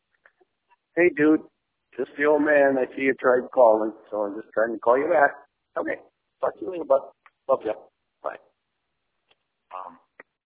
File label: voicemail